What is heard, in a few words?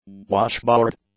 "washboard", English; nouns